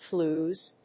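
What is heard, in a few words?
"flues", English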